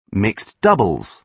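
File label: "mixed doubles", British English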